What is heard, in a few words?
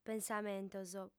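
"pensamentos", Sardinian, female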